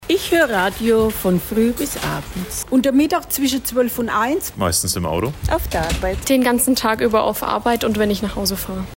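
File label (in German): Umfrage Radiotag Wann hört ihr Radio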